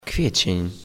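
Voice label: "April", Polish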